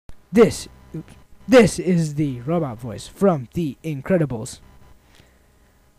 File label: robot voice